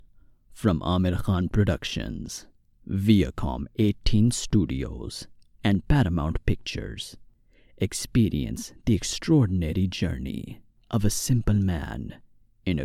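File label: Promo Hindi Accented English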